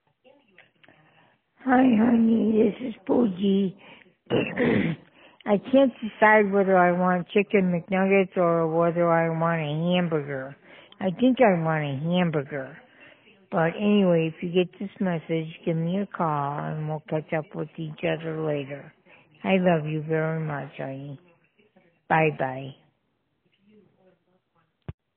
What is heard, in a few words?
voicemail